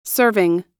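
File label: "serving", US English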